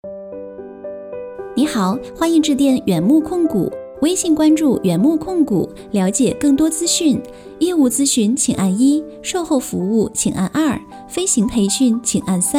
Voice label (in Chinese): 彩铃中英